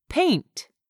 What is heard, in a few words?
"PAINT", English; 3000 words